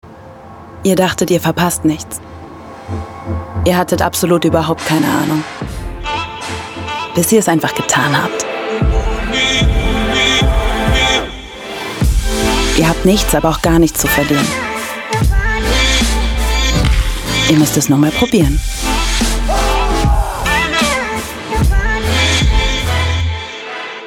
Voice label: TV Werbung; Amorelie

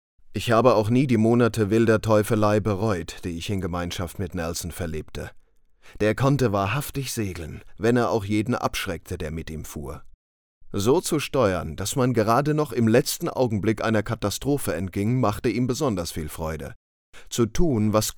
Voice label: Hörbuch; Jack London

